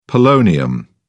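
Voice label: "polonium", British English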